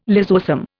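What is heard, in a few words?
"lysosome", Indian English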